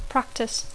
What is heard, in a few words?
"practise", English